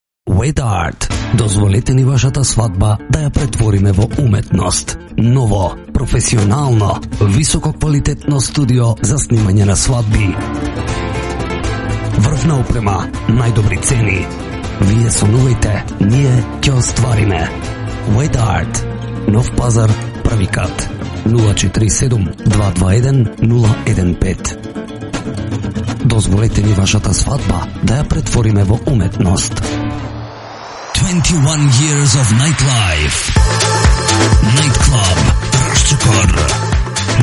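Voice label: Macedonian, male, radio commercial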